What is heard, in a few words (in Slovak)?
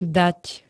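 "dať", Slovak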